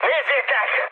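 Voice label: Hungarian enemy detected mood high, speech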